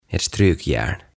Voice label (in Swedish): "Ett Strykjärn", "Silitysrauta"